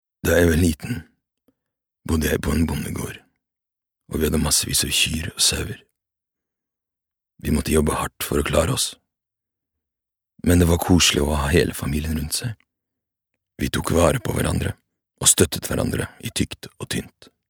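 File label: no character old man, Norwegian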